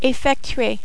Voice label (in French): "effectuer", French